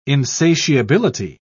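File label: "insatiability", British English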